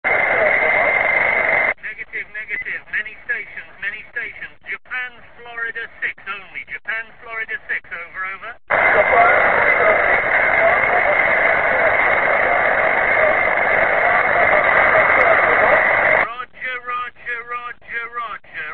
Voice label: QSO